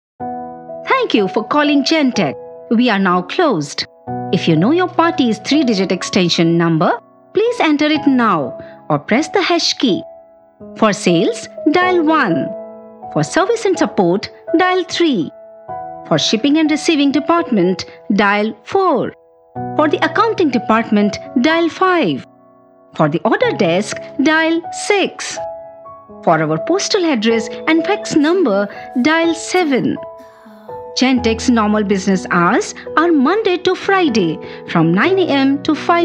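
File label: IVR English; Gentech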